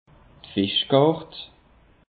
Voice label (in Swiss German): "fischkart", alsace; peche